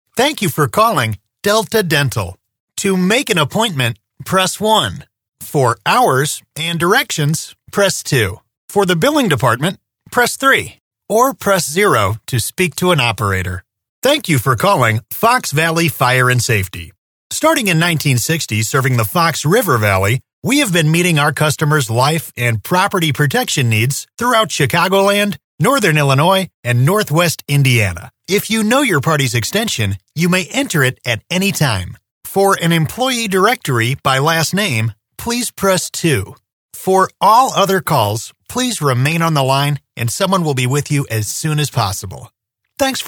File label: US PHONE